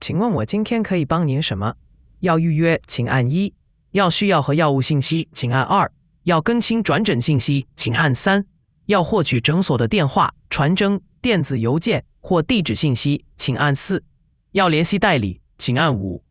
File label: question mandarin